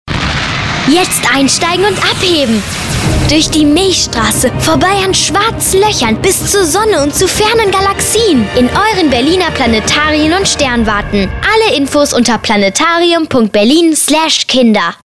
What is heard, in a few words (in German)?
Radiospots Kinder; Planetarien und Sternwarten in Berlin